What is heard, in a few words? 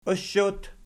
"oshot", Archi